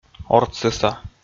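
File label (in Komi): "ортсыса", Komi, male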